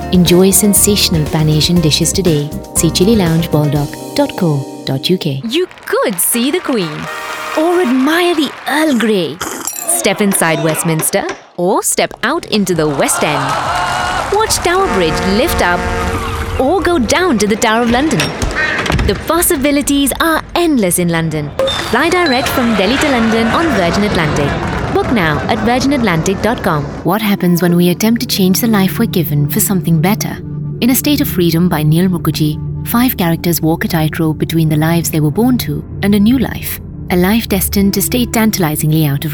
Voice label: Indian accent reel

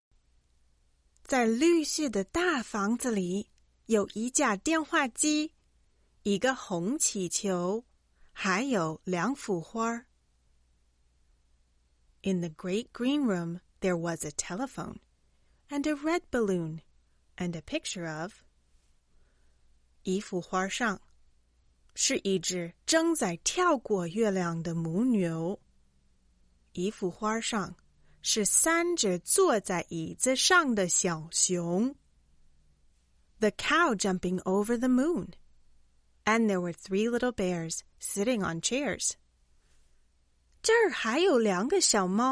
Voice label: Chinese Rhymes and Book Narration

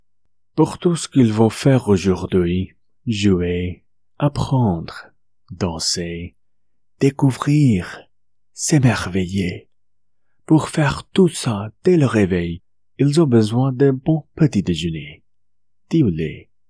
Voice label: French Commercial VO

